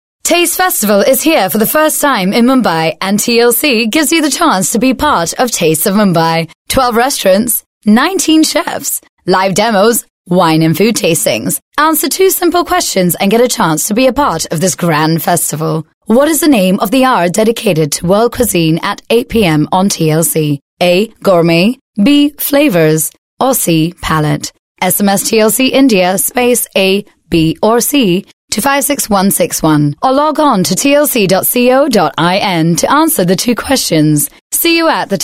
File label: English, female voice; Taste of mumbai